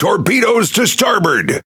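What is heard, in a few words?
Pirate Speech Alarms Torpedo Right, English pirate; World Of Warships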